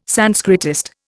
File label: "Sanskritist", Indian